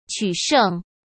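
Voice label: "取胜", Mandarin Chinese; hsk; chinese-flashcards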